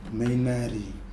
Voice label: "nɨminari", Nafe; bush

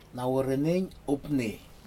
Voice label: thank you very much, Aneityum

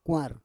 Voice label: "kwar", Roon

